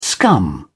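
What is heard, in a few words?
"scum", English, British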